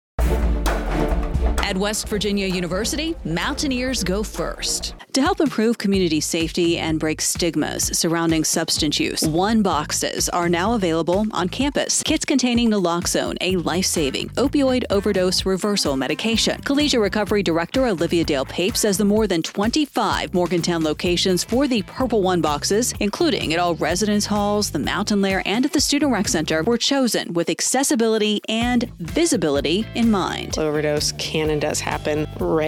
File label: radio spot; collegiate recovery